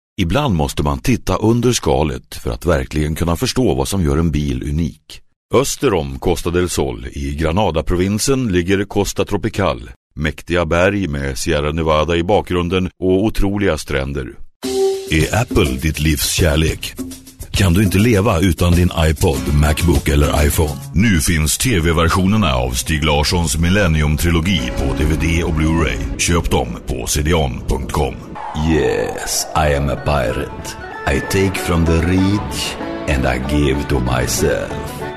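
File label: Swedish Male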